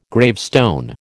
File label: "gravestone", American